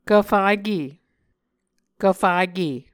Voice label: "kvfake", Muscogee